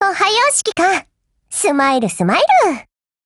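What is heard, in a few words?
"hello", Japanese; GF Alarm; PP90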